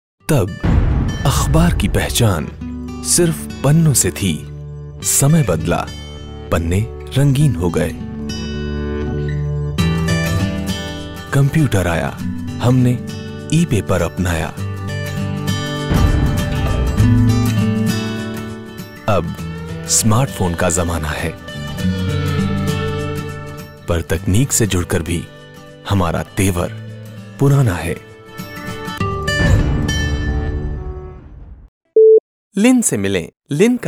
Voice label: HINDI voice over Reel